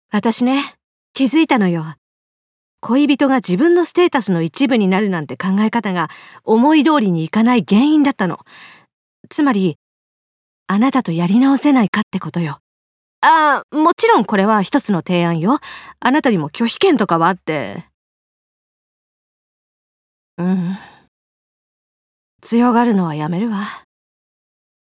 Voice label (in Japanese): よりを戻す30代, 宮城・気仙沼弁, ボイスサンプル